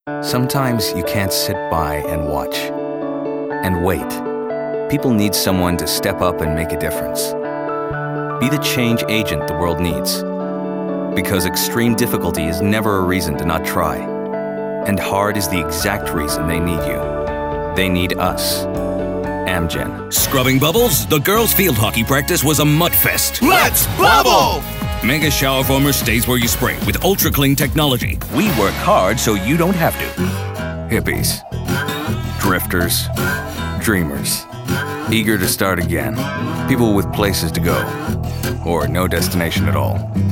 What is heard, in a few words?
North American